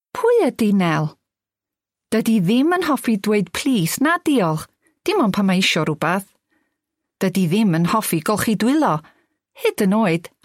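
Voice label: Welsh female Narration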